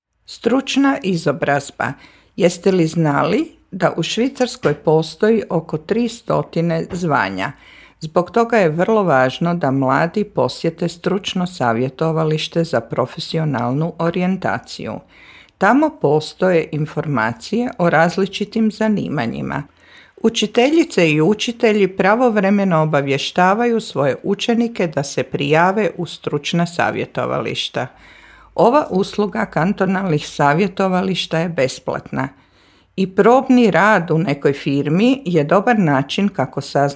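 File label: Croatian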